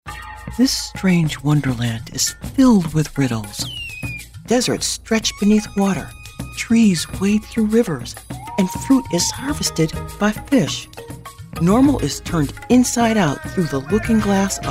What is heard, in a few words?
General Narration